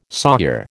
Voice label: "sawyer", American